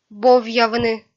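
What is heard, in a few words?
"бовъявны", Komi-Zyrian, female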